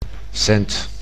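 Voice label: "cent", Dutch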